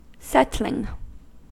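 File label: "settling", US English; pronunciations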